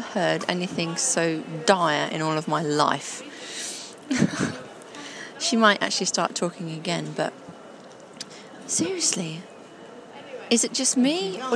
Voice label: peterborough train station and the lady announcing the trains; sounds completely bored